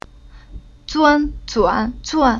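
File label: "Zuan", chinese syllable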